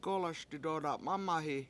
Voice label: I hurry and finish my basket, Washo; gOlaS didOda7 mAmahi

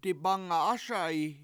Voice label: I'm going to put it on the table, washo; dibAnga7ASa7i

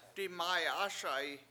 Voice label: I will throw it out, Washo; dimAyAASa7i

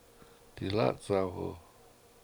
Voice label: "silAAt7awhu", Washo; Tiger Lily

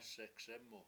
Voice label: "SekSEmu", Washo; almost

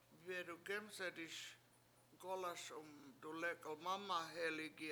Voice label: "midugEmset7iS gOlaS umdulEk1l mAmaheligi", washo; I help you cook

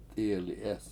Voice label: "t7IIyeli7EEs", Washo

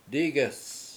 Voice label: "dIges" net, Washo

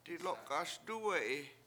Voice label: "dilOk7ASduwe7i" Im scared, Washo

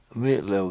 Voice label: "mI7lew", washo

